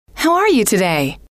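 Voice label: how are you today, female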